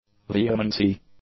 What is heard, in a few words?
"vehemency", English